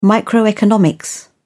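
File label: "microeconomics", British English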